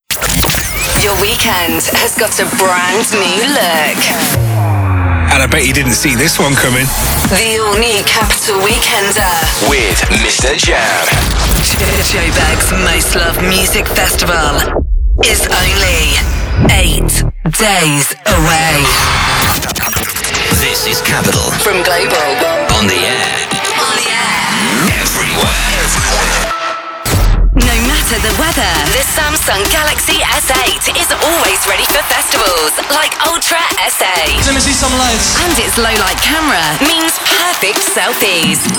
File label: british female voiceover imaging